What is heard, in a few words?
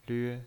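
"lve", pinyin voice, Mandarin Chinese, first tone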